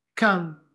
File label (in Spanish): Voice Acting es F0